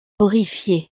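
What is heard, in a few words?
"horrifiee", French; estados animo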